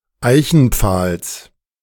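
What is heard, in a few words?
"Eichenpfahls", German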